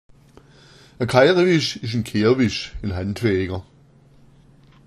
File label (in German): "kairawisch", schwaebisch